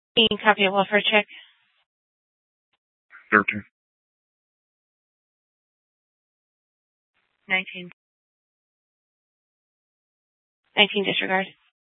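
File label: police department Dispatch, scanner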